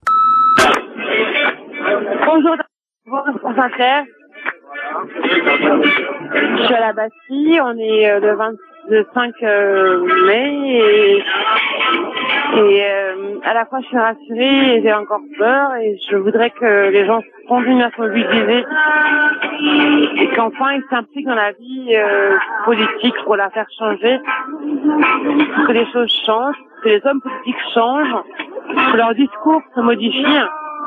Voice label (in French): Manif anti FN repondeur